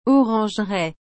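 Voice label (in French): "orangeraie", French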